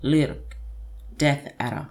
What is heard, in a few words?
"lirrk" death adder